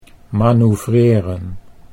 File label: "manoeuvreren", Dutch